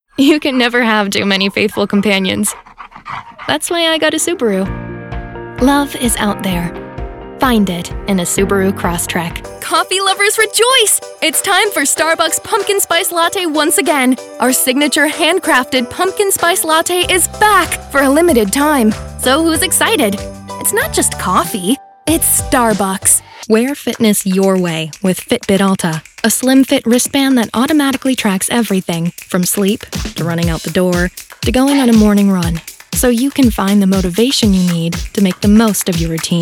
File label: Commercial